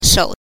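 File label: "shou", neutral tone, Mandarin Chinese pinyin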